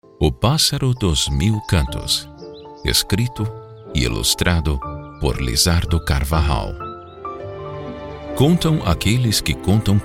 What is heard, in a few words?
audiobook